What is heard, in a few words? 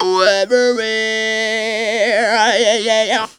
OH EVERY, Funky Vocals; USB Soundscan vol.18; AKAI; 90s sample CD